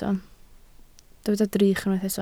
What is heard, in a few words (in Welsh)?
"drych", Welsh